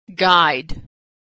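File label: "guide", American English; Consonant Sound Pair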